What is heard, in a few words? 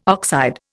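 "oxide", Indian English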